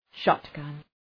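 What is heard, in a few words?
"shotgun" English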